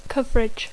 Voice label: "coverage", English; Dacco

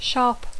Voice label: "sharp", English; Dacco

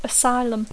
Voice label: "asylum", English; Dacco

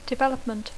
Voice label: "development", English

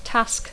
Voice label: "task", English; Dacco

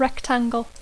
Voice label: "rectangle", English; Dacco

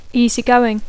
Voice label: "easygoing", English; Dacco

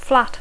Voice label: "flat", English

